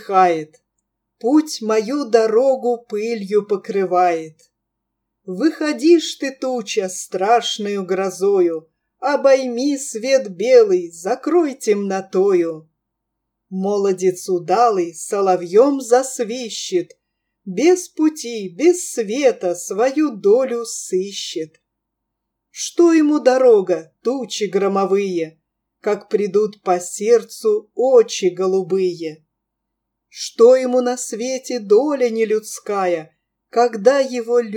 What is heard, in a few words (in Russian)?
русская песня; Кольцов